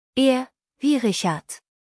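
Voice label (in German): "Richard", German spelling alphabet; R wie Richard; buchstabierung alt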